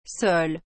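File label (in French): "sole", French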